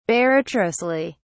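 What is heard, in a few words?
"barratrously", British English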